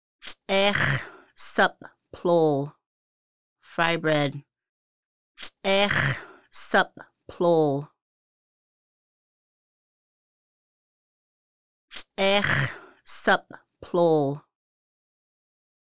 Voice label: frybread, Lushootseed; hunting feasting

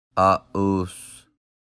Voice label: oval, Lushootseed

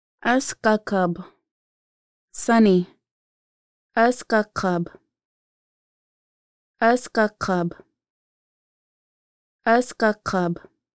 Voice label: "ʔəsgəqəb", Lushootseed

